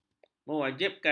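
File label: "mewajibkan", Malay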